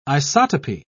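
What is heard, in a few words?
"isotopy", British English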